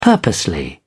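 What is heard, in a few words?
"purposely", British English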